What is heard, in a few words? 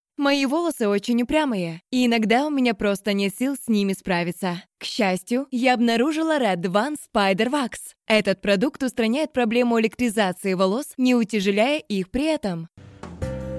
russian mix